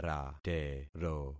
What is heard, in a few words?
"ratero", concat syllables; Affixing experiment